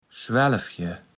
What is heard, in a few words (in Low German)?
"Schwaelfe", platt